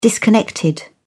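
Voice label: "disconnected", English, British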